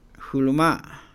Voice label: "veneno", Mam; INALI